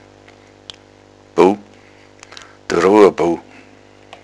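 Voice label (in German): "bub", Bavarian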